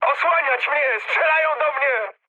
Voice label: aircraft damaged mood high, speech pol